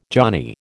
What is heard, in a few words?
"johnny", American